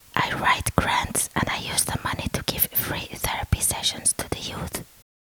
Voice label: wispering secret